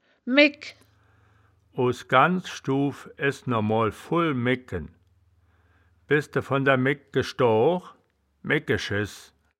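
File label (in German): "megk", wittlicher woerterbuch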